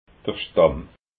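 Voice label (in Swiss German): "stamm", Alsatian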